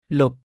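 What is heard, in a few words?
"លប់", Khmer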